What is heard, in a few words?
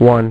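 "one", male; MacCribbage; InterStellar MacCribbage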